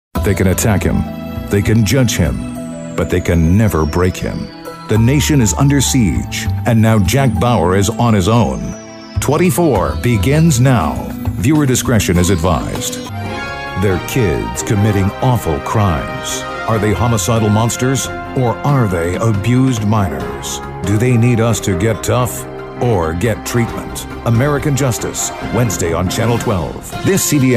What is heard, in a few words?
TV PROMO, deep voice